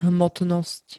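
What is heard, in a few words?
"hmotnosť", Slovak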